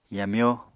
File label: "yamyó", Apatani